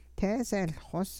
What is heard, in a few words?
I coughed, hupa